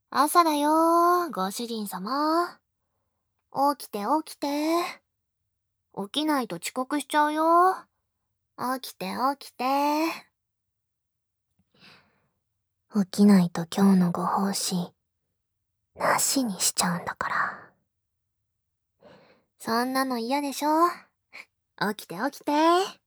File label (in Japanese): 急かしボイス